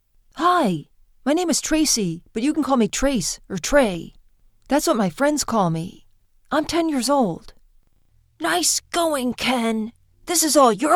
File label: boy voices